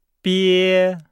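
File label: "bie", Chinese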